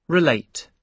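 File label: "relate", British English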